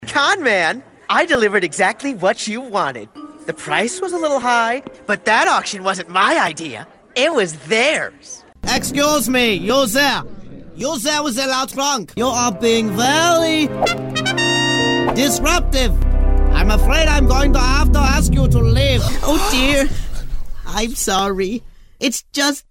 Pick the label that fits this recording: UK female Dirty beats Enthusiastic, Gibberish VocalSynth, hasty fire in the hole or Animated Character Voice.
Animated Character Voice